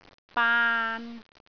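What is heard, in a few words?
"baan3", Cantonese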